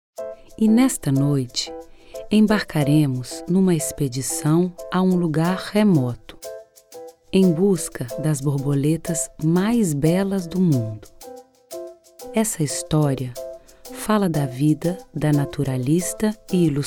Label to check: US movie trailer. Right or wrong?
Wrong